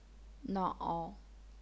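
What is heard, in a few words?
"nao", third tone, chinese pinyin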